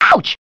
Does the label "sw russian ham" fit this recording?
No